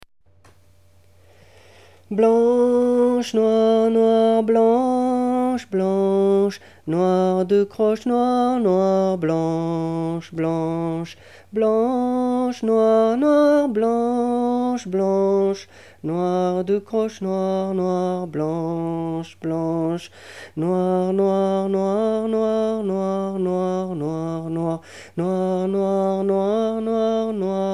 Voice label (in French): lecture rythmique; Ciuciarella